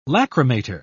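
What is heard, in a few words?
"lacrimator", British English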